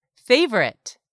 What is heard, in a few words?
"favorite", English; 3000 words